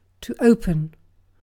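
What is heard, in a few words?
"open", British English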